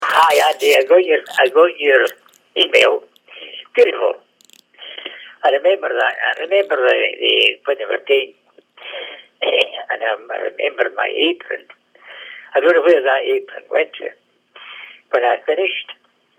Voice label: voicemail, a younger man